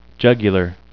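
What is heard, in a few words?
"jugular", English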